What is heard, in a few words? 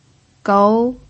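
"gou" second tone, pinyin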